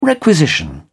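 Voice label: "requisition", British English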